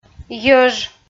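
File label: "йӧж", Komi, female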